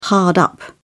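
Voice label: "hard up", British English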